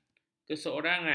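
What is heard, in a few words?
"keseorangan", Malay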